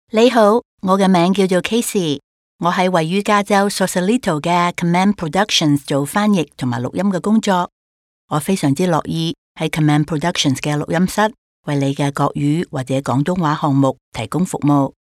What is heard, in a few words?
Cantonese